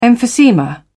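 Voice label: "emphysema", British English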